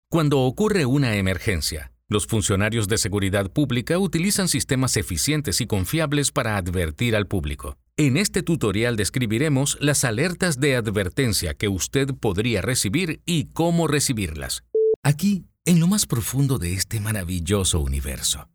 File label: Elearning and Corporate Voice samples